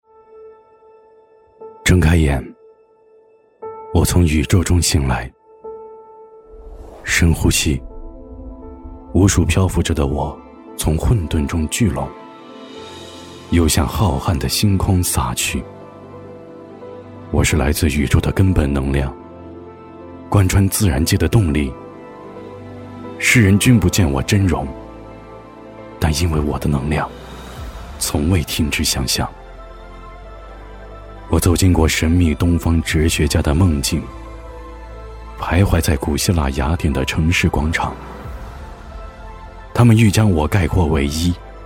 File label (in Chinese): 男 纪录片 旁白故事感; 抒情温暖 自然诉说